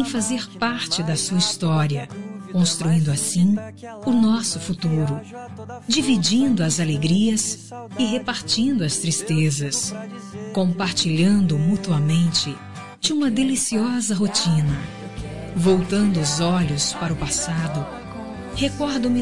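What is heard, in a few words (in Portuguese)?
aniv casamento fem, Telemensagens; Telemensagem de Aniversário de Casamento Romântico – Voz Feminina; Telemensagens para todas as ocasiões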